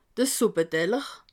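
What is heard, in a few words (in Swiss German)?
"suppe", Alsatian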